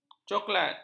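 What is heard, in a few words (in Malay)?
"coklat", Malay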